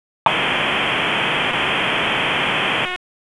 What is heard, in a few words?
PS TAC, p25